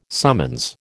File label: "summons", American